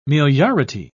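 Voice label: "meliority", British English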